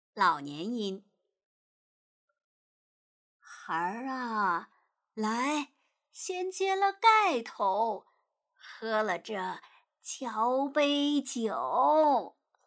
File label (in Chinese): 老妪音